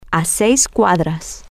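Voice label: six blocks away, Spanish, female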